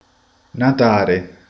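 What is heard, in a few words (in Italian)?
"nuotare", Sicilian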